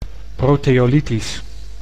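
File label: "proteolytisch", Dutch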